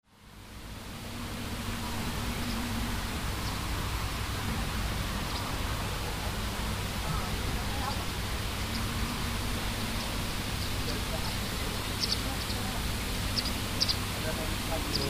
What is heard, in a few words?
conversation; snape